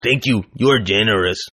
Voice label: Toughguy English, Tough Hispanic Male; Toughguy Ty English; Etiquette Sound Effects

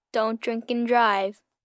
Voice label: Dont Drink Drive, Good Advice, 1 boy 10 yrs; Talking Sound Effects

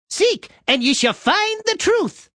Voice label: Seek And Ye Shall, Male; Talking; Voice Box

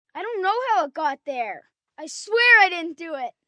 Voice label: Dont Know How, 1 boy, 10 yrs; Talking

